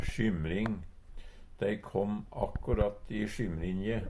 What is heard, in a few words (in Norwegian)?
"sjymrinje", Numedalsmål; Substantiv hokjønn; Tida (dagen, året, merkedagar); Dei kom att akkurat i sjymrinje.